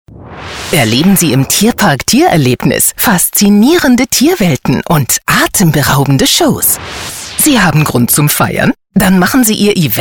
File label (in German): begeistert aktionistisch